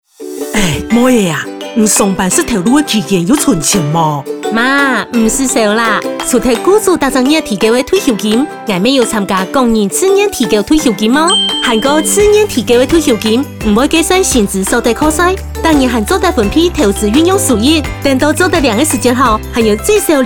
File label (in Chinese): 客語; 勞動部; 個人自提退休金好處多